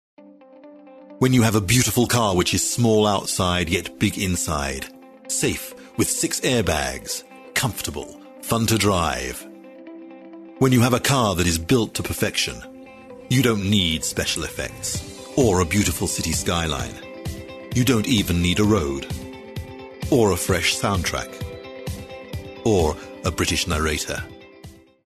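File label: English, British, male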